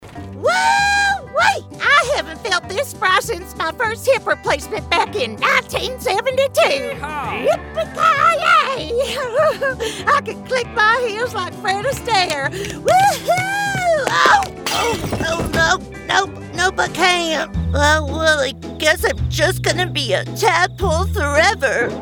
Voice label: Animation; Animation & Character Voiceover